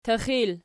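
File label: "tixil" nominative, Archi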